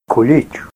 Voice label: "kulich", Ninilchik Russian

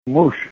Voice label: husband, Ninilchik Russian

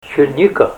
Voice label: blackberry, Ninilchik Russian; n. blackberry, crowberry; Category: berries

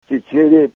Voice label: four, Ninilchik Russian; num. four. variant chichír'i.; numbers

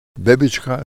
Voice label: baby, russian

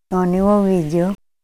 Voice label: He saw him, Ninilchik Russian; On iwó w'íd'il.; sight; w'íd'it' ВИДЕТЬ; v ipfv. see

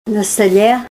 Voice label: on the table, Ninilchik Russian; na stal'é

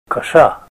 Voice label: "scythe", Ninilchik Russian

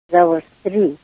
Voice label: sharpen it, Ninilchik Russian; Zawastr'í!; tools; zawastr'ít' ЗАОСТРЯТЬ; v pfv. sharpen

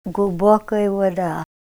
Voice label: deep water, Ninilchik Russian; glubókay wadá; glubókay ГЛУБОКИЙ; adj. deep

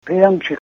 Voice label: godchild, Ninilchik Russian

